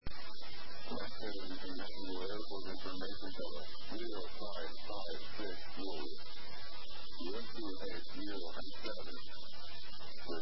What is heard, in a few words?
ATIS, USA; las